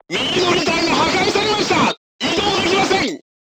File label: voice message right track knocked down, crew tanks japanese